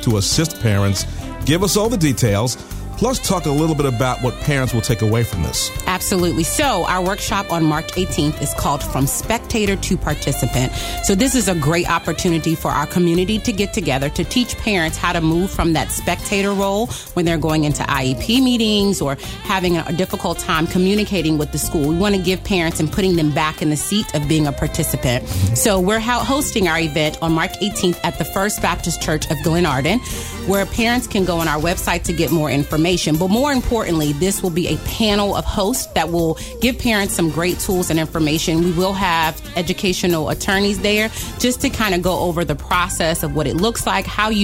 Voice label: Voice Air check